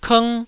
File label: "kng1", taiwanese syllables